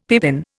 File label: "pippin", Indian; Dictionary